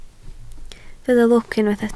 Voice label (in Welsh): "lwc", Welsh